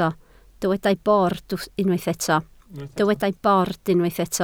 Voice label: "bord", Welsh